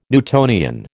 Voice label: "Newtonian", American